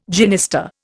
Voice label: "genista", Indian